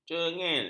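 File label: "cengil", Malay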